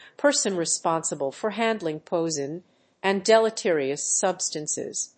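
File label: "person responsible for handling poisons and deleterious substances", English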